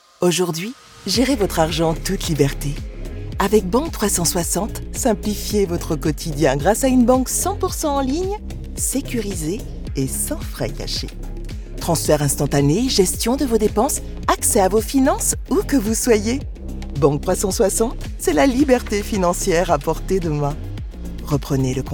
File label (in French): pub, voix off; banque360